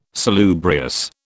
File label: "salubrious", British